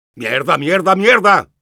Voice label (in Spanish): Engineer negative vocalization, es